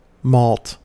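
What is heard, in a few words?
"malt", US English; pronunciations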